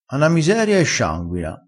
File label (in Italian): "scianguina", crespinese